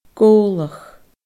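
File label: "gobhlach", Scottish Gaelic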